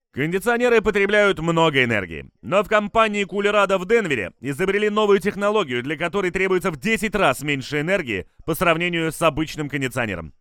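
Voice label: Russian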